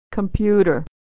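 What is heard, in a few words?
"computer", American English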